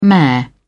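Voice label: "mare", English, British English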